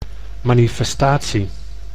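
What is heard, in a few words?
"manifestatie", Dutch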